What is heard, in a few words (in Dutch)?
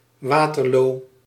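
"waterloo", Dutch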